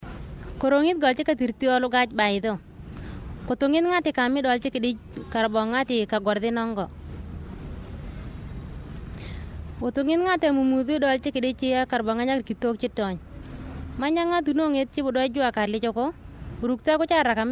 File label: Radio Jingle, Murle; ROA